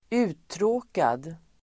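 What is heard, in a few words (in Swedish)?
"uttråkad", Swedish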